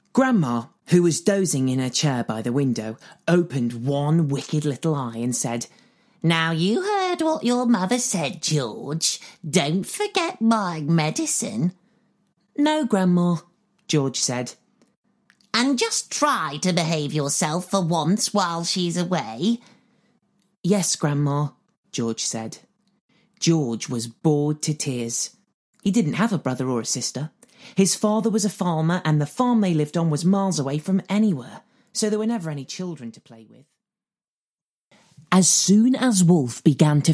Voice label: Audiobook; 20s-40s. Male. Studio. Liverpool. Based in the US.

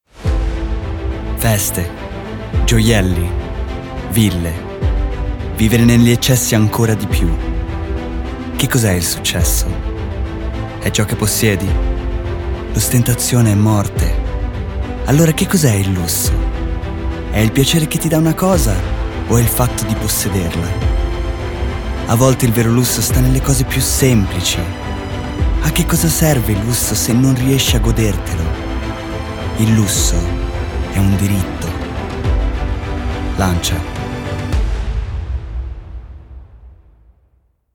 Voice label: Italian

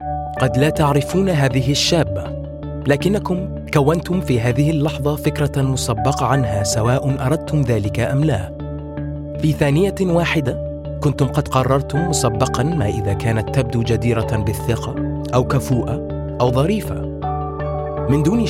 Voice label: Arabic

